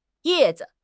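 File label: "ye4 zi5", Mandarin Chinese; HSK4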